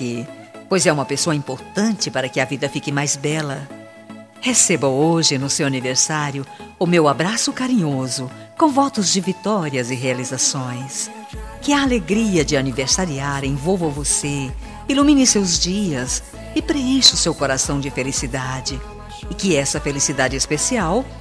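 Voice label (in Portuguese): birthday AMIGA female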